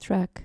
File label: "track" dutch